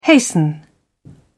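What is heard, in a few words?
"hasten", British English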